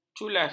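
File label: "culas", Malay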